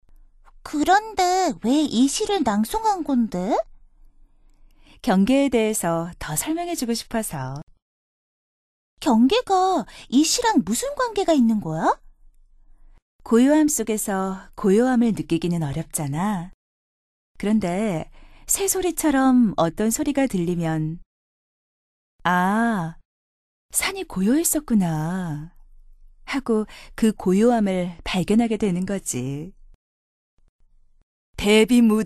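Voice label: Korean female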